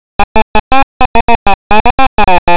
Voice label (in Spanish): El saxofón se toca con pánico y con amor, Castellano femenino sin estudios superiores; Frases enunciativas; Bullas